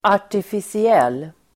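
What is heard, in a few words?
"artificiell", Swedish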